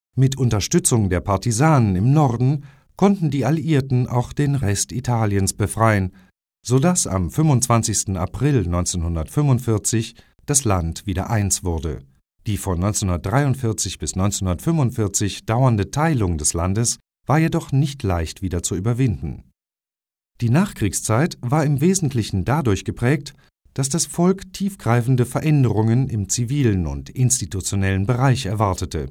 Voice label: tedesco normale; MASCHILE; Speaker Madrelingua

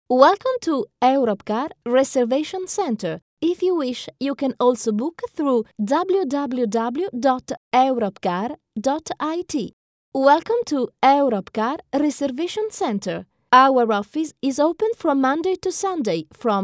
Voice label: INGLESE; FEMMINILE; Speaker Madrelingua; NormaleImpostataDinamica

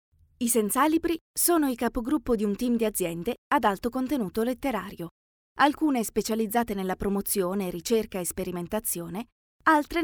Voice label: impostata, italiano, speaker; FEMMINILE; Speaker Madrelingua

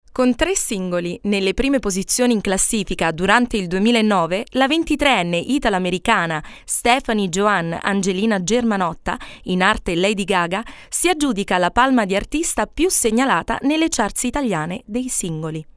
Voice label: italiano normale, speakers; FEMMINILE; Speaker Madrelingua

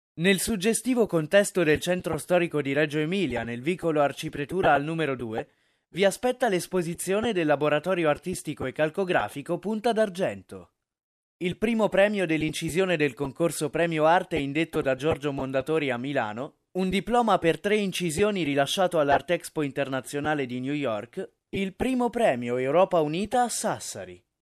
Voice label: ITALIANO, speakers

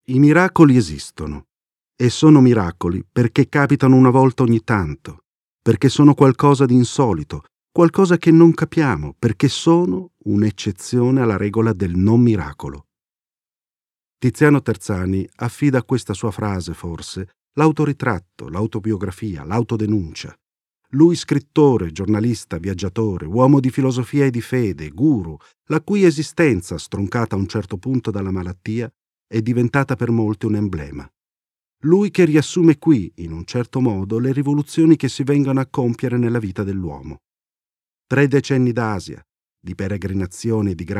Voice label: ITALIANO

